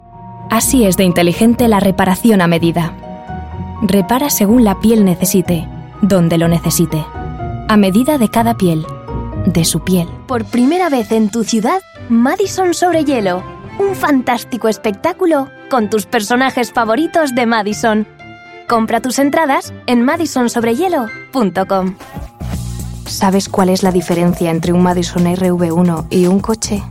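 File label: Spanish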